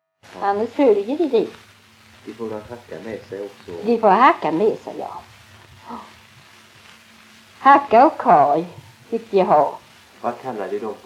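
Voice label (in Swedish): Tving, Swedish dialect; svenska sagor; Folkminnen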